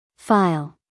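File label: "file", American English female; everyone-can-use-english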